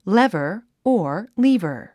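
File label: "lever", English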